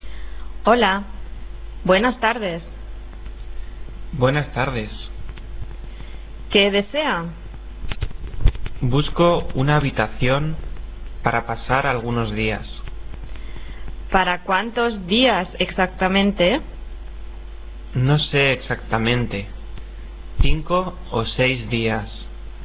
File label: dialog